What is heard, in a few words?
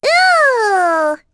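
Vox Halloween Skill, voices heroes English; Luna; kings raid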